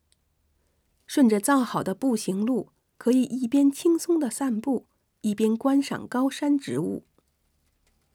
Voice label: chinese